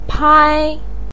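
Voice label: "pai1", Mandarin pinyin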